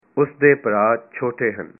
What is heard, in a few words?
his brothers are young, punjabi